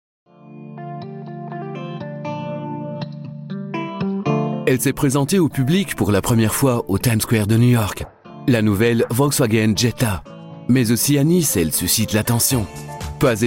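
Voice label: French commercial; GoLocalise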